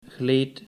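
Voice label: "gleed", Dutch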